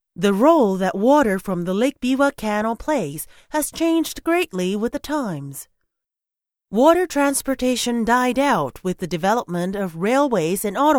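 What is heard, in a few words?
3rd exhibition room english; Voice guidance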